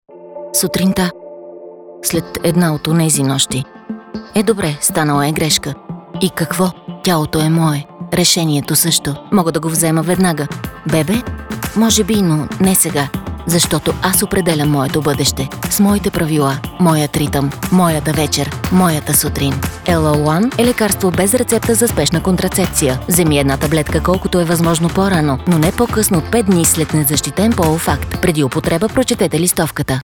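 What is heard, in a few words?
Bulgarian, General; GoLocalise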